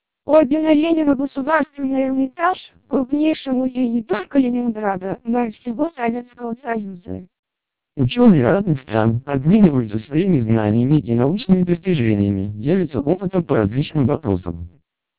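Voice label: TWELP 400 bps Vocoder, Russian speech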